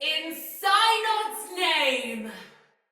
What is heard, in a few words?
Pre Death Sinecure Soldier Female; Battle; Barks; Dialog